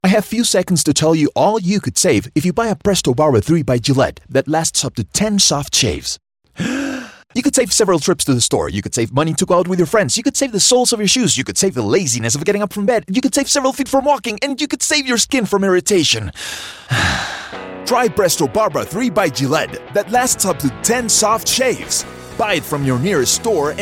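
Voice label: male, Colombian Spanish, accented English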